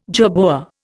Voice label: "jerboa", Indian